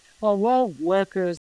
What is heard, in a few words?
Voice Acting, es